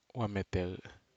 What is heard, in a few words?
Aneityum sweet potato